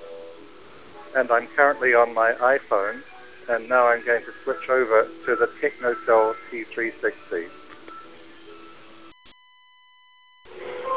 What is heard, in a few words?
t360 noisy, bluetooth